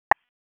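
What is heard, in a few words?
Dispatch 1, P25; WSO DISP 3; wake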